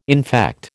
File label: "infect", American